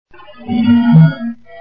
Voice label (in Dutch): vrouwenstem